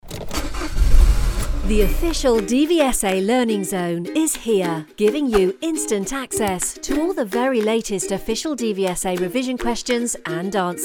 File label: Corp UK Learning Zone, british voice; DVLA